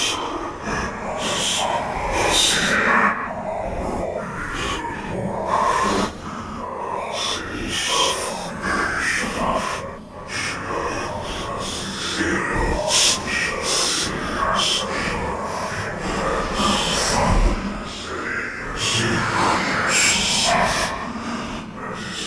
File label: Male Whispering; Phasmophobia